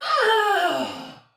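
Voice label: Patrol Spoken Soldier Female; Dialog; Barks; Battle; Sinecure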